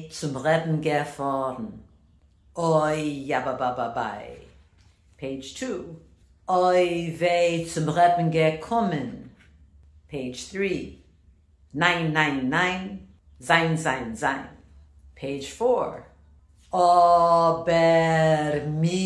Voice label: Maskilik pronunciation; maskilim medley